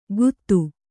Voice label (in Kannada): "ಗುತ್ತು", Kannada